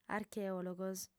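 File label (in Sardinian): "archeòlogos", female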